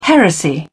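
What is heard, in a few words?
"heresy", British English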